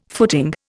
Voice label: "footing", Indian